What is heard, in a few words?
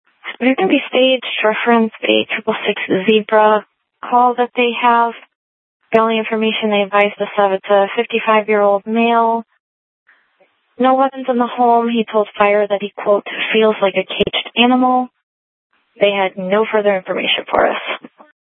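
Sheriff, Parks, Sheriff radio scanner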